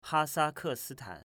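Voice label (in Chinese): "Hasakesitan", Chinese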